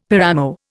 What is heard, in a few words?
"paramo", Indian; Dictionary